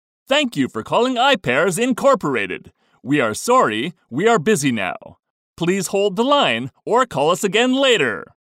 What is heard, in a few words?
American; 英語２; ナレーターランクⅢ